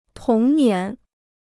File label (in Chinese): "童年", Chinese